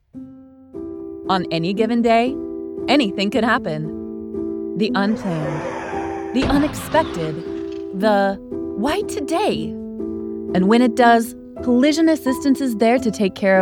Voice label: VO Explainer

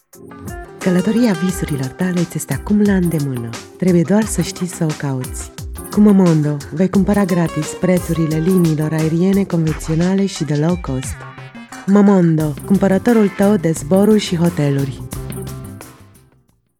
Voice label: Commercial Soft

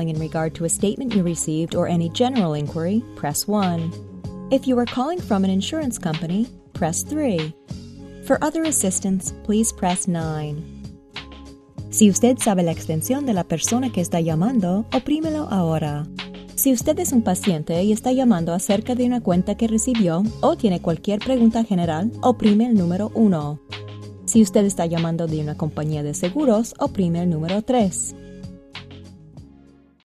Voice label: Bilingual US English Spanish IVR

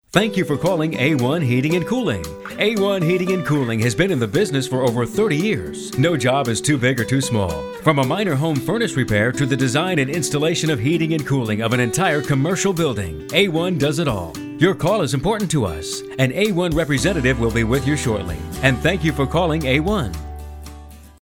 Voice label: On-Hold

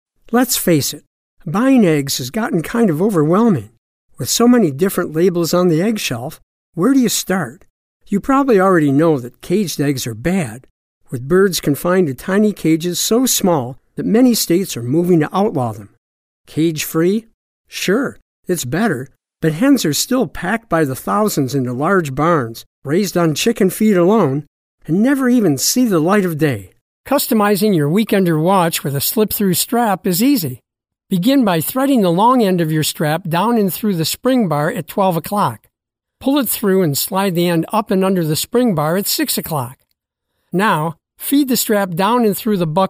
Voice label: Explainer Narration

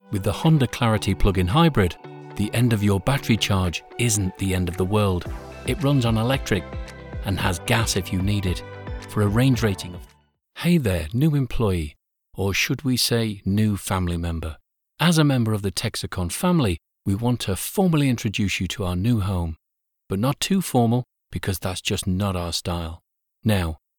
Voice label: Voice Reel UK

